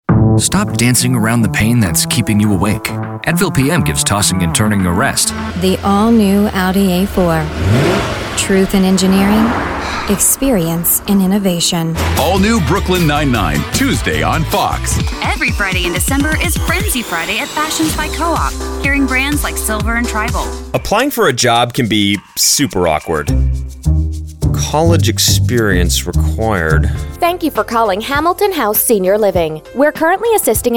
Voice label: INGLES USA

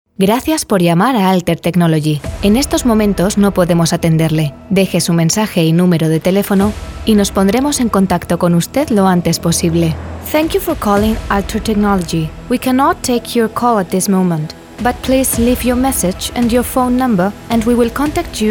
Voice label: CENTRALITA

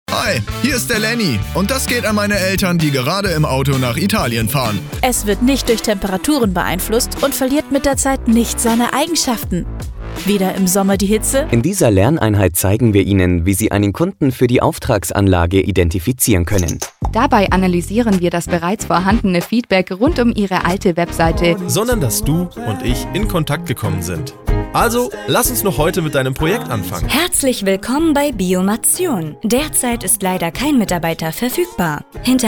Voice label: ALEMAN

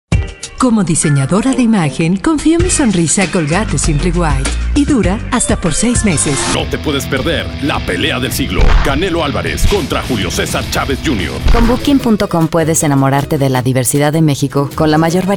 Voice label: MEXICO

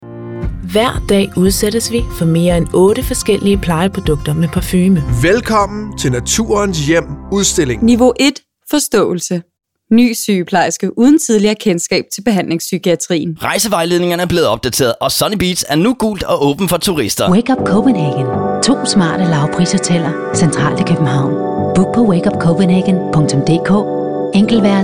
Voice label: DANESA

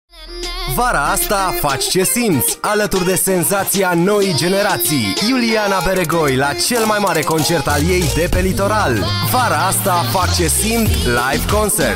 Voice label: RUMANO

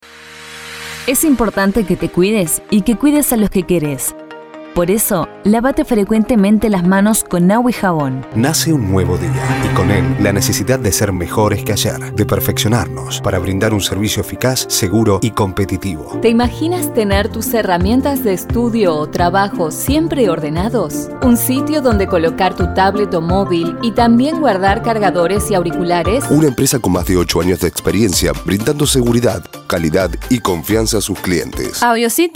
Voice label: ARGENTINA

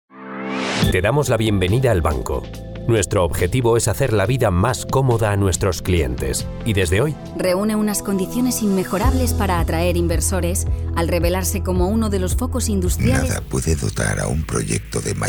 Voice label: CORPORATIVO

